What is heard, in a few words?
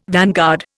"vanguard", Indian